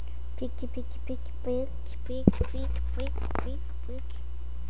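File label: "pick", spoken word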